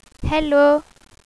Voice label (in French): "hello", Royaume-Uni; Londres